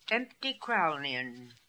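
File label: "Emptykralnian", pronunciations; Fourth Way; Beelzebub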